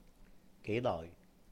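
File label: "géi-noih?", Cantonese; how long?; 幾耐?; gei2noi6?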